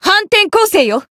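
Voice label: heal, Japanese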